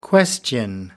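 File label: "question", British English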